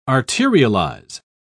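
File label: "arterialize", British English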